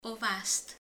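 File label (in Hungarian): "kéz", Hungarian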